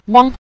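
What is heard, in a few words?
"wang", Mandarin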